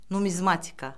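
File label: "numizmatika", Azerbaijani; Orfoqrafiya Lüğəti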